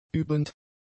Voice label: "übend", German; adjectives